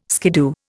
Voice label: "skiddoo", Indian